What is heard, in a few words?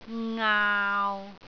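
"ngaau6", Cantonese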